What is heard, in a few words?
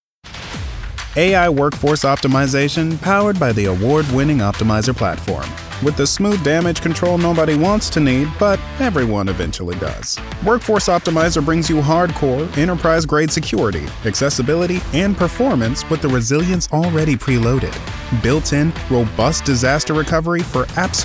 Corporate